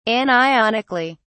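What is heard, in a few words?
"anionically", British English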